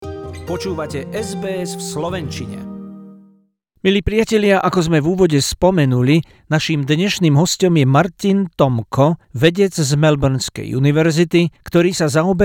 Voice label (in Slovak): slovak; sbs